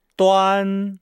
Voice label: "duan", Nihao

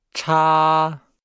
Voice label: "cha", Nihao

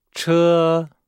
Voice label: "che", Nihao

